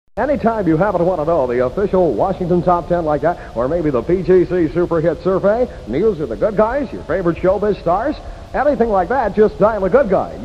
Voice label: promo; dial a good guy line

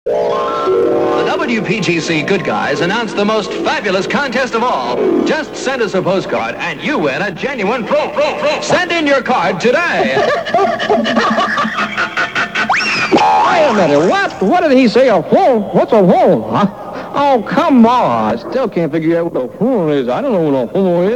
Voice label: promo; bogus prize

